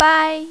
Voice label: "bai1", mandarin, female

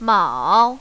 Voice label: "mao3", mandarin, female

